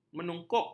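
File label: "menyungkup", Malay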